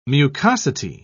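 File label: "mucosity", British English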